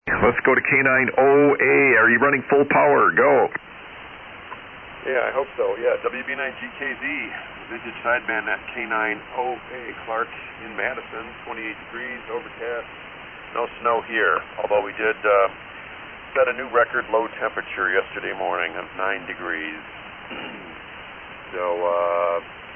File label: Hallicrafters HT-32B SX-115 & 638 Mic SB-200 Amp; Madison,Wi.